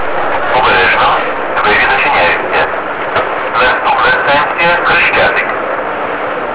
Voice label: Kiev "Oberezhno, dveri zachinyayutsa – nastupna stantsiya [name]" ("Mind the closing doors – next station is [station name]"); metro